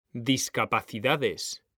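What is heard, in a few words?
"DISCAPACIDADES", Spanish; mekanta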